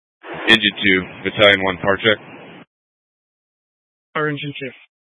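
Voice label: Incident Tactical 2, Scanner audio recording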